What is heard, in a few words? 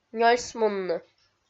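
"нёльсмунны", Komi, female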